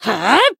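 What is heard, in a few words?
Voice attack Japanese; toome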